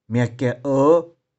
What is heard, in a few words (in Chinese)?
"mih4kih4o5", 玉環